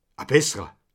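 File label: "bessere", Haut Rhin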